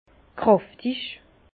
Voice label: "kraftig", Bas Rhin; vin; corsé

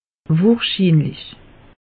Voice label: "wohrschiinlich", alsace; meteo

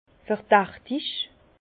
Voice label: "verdachtig", Bas Rhin; vin; douteux

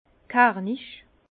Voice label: "kernich", Bas Rhin; vin; robuste

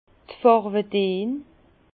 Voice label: "farwedeen", Bas Rhin; les teintes; vin

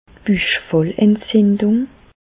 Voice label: "buchfallentzindung", Bas Rhin; medecine; péritonite

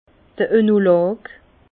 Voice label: "oenolog", Bas Rhin; vin; œnologue

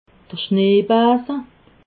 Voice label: "schneebase", alsace

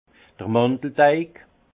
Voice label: "mandel deig", alsace; vin; pâte d’amande

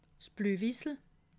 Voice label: "bleiwis", alsace

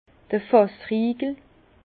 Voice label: "fassriegel", Bas Rhin; verrou de tonneau

